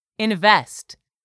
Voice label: "invest", English word; 3000 words